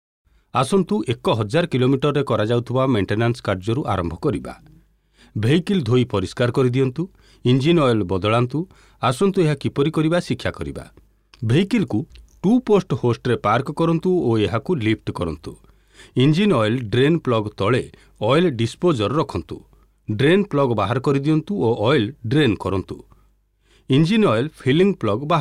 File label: ORIYA MALE